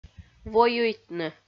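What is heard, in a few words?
"воюйтны", Komi, female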